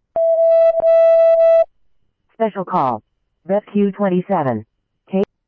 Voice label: Phoenix Fire PHX Alarm, scanner; RWC; H-Scottsdale

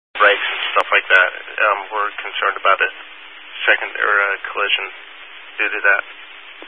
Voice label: Highway Patrol, Phoenix Metro East Area, scanner

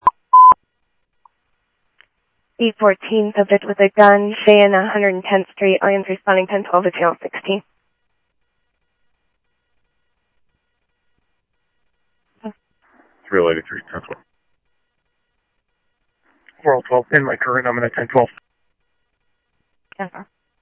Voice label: Police, scanner audio

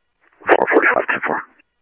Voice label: Police, scanner audio trunk; H-Scottsdale

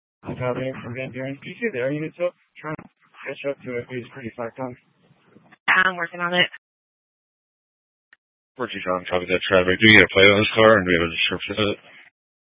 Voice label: Police Dispatch

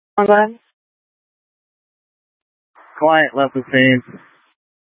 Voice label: Fire Dispatch; K8 - FDCOM 8 - South; C- Chandler

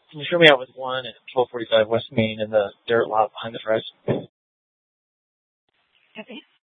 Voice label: Mesa PD Central Dispatch, Scanner

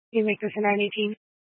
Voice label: Mesa PD Dispatch; Fiesta

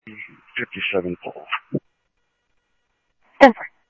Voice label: Scottsdale Police, scanner audio

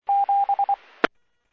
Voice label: Medevac, scanner; NativeAirAmb

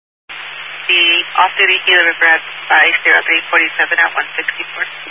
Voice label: Highway Patrol, scanner; DPS; Dist 06 Casa Grande

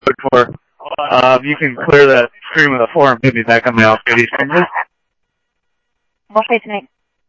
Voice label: Scottsdale Police, scanner audio; H-Scottsdale; SCTSDLE PD - PD2

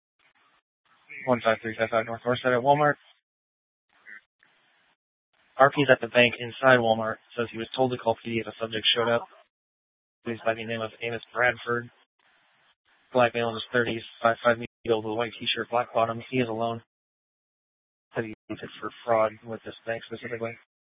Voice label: Dispatch; Scottsdale

